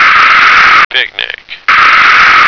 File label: "picnic", American